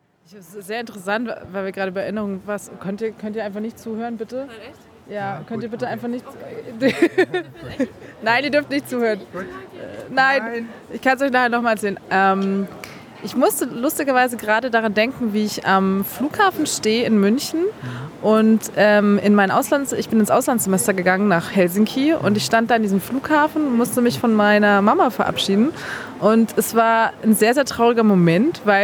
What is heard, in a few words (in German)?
stimme w